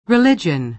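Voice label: "religion", American English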